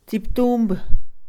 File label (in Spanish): ejercito, Mixe, Mujer; INALI